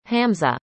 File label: "hamzah", arabic; indonesian boy names